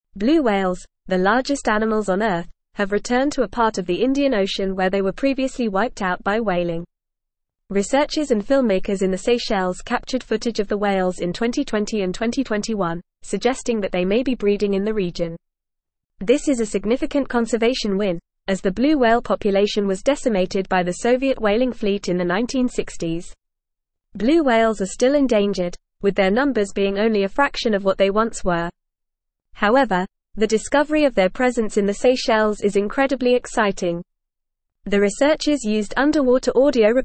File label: English Advanced FAST Reading; English Newsroom; Blue Whales Return to Seychelles a Conservation Win